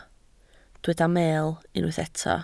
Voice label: "meel", Welsh

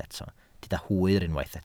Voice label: "hwyr", Welsh

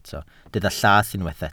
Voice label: "llath", Welsh

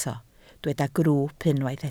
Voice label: "grwwp" Welsh

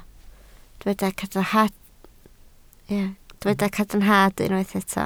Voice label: "cadarnhad" Welsh

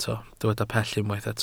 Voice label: "pell", Welsh

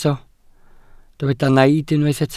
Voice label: "naid" Welsh

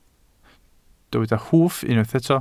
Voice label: "hwff" Welsh

